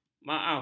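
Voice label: "maaf", Malay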